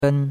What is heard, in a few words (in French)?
"ben1", chinois; Cours-de-Chinois